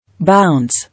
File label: "Bounce", English